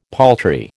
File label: "paltry", American